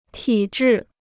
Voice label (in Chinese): "体质", Chinese